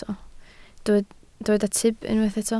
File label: "tyb", Welsh